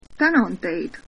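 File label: thanks, Estonian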